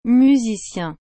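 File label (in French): "musicien", French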